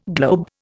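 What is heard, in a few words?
"globigerina", Indian; Dictionary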